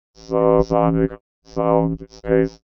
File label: VOICEFX ELCTR 140; Techno2